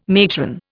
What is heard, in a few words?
"matron", Indian